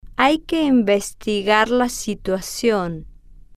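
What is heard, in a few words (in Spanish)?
hay que investigar la situacion, Spanish, female, slow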